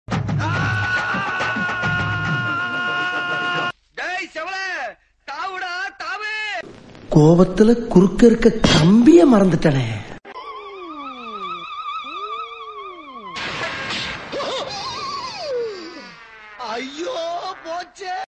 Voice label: tamil dialogue